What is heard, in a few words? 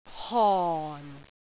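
"hon4", Cantonese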